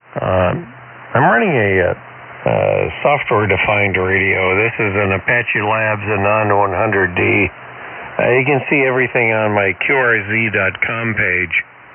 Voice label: 7156kHz